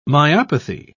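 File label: "myopathy", British English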